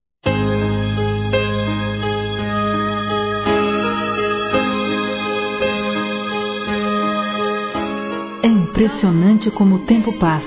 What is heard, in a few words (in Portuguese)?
Aniversário Neta Feminino